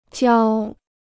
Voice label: "交", Chinese

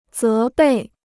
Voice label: "责备", Chinese

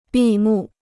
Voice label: "闭幕", Chinese

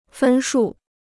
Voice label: "分数", Chinese

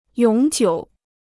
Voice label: "永久", Chinese

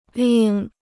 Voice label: "另", Chinese

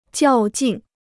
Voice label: "较劲", Chinese

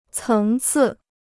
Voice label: "层次", Chinese

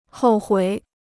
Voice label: "后悔", Chinese

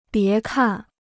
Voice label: "别看", Chinese

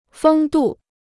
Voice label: "风度", Chinese

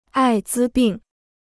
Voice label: "艾滋病", Chinese

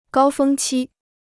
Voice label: "高峰期", Chinese

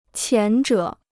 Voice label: "前者", Chinese

